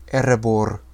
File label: "Erebor", Sindarin